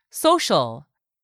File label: "SOCIAL", english; 3000 words